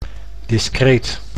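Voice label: "discreet", Dutch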